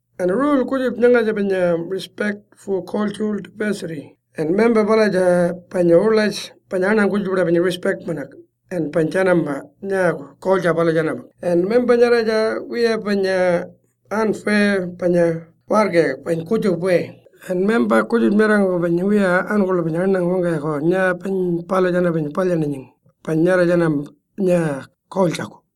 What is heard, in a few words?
Pitjantjatjara; Code of Conduct; RESPECT 4 DIVERSITY